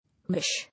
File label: "Wish", English